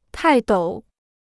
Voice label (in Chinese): "泰斗", Chinese